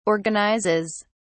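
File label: "organizes", British English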